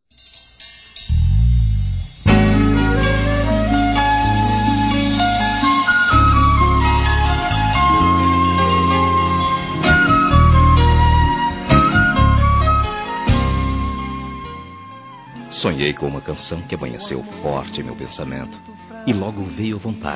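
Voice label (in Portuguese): Namorada Masculino; Aniversários; ANIVERSARIO romantico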